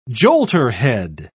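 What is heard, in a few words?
"jolterhead", British English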